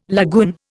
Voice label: "lagoon", Indian